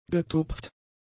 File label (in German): "getupft", german; adjectives